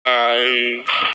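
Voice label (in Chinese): "an1 wei4" Chinese, female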